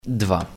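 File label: "Two", Polish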